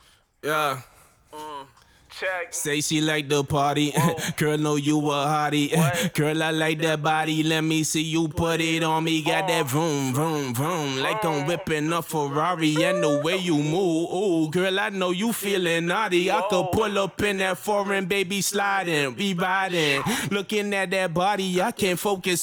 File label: acapella, hip hop 136 Gm